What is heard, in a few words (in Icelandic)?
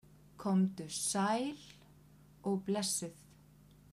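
"komdu sæl og blessuð", kvedjur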